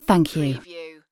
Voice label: UK female "thank you"; lynne publishing

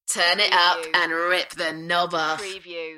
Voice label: UK female, Turn it up and rip the knob off; beanstalk audio

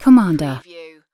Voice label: UK female "commander"; lynne publishing

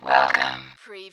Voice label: Robot voice, "welcome"

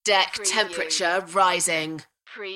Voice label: UK female; Deck temperature rising

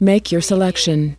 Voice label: US female make your selection; lynne publishing

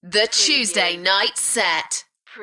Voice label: UK female The tuesday night set; beanstalk audio

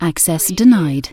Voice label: UK female "access denied"; lynne publishing

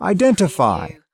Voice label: "identify", US male; lynne publishing

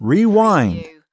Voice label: US male "rewind"

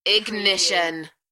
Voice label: "Ignition", UK female; beanstalk audio

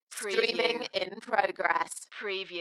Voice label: UK female, Dalekness; beanstalk-audio

